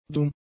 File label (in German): "dumm", German; adjectives